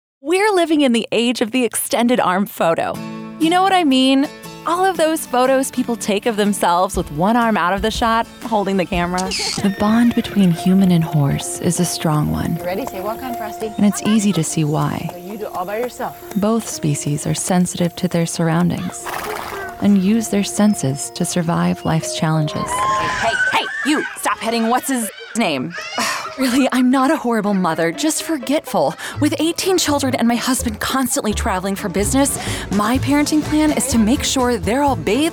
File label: Commercial